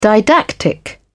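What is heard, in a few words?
"didactic", British English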